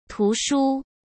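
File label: "图书", Mandarin Chinese; chinese flashcards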